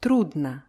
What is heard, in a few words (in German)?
"trudno", russische